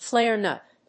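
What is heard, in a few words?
"flare nut", English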